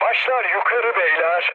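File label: Turkish near waypoint, mood med, speech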